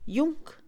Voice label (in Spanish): Mixe, Mujer; suave; Percepción; INALI